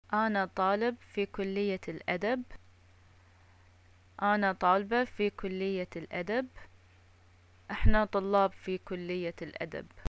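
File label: im a student, Bahraini arabic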